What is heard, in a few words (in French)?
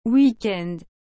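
"week-end", French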